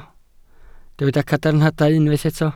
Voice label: "cadarnhadau", Welsh

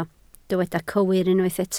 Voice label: "cywir", Welsh

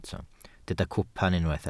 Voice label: "cwpan", Welsh

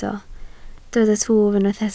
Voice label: "twf", Welsh

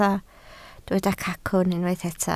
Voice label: "cacwn", Welsh

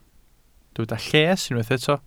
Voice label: "lles", Welsh

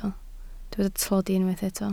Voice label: "tlodi", Welsh

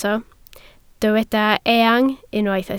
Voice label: "eang", Welsh

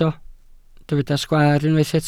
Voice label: "sgwaar", Welsh

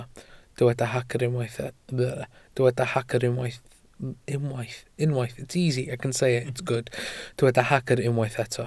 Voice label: "hagr", Welsh

